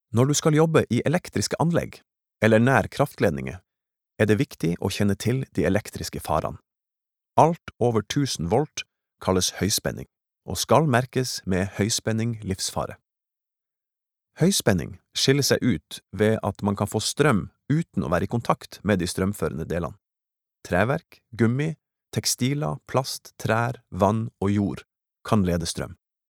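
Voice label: Norwegian e-learning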